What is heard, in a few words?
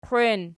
"qwein", Archi